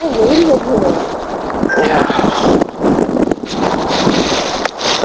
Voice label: Cemetery I hear a soft No then a Creepy No